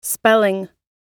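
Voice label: "spelling", American English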